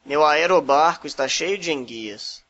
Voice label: hovercraft portugues-br

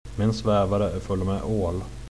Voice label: hovercraft sueco

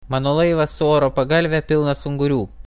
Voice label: hovercraft lituano

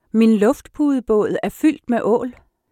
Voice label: hovercraft dinamarques

